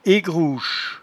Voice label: "egroueche", patois jura